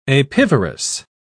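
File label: "apivorous", British English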